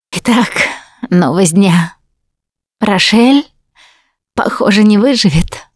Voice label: going to die, russian; Producer